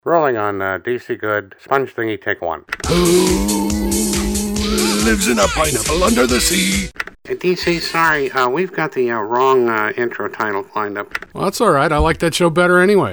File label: animation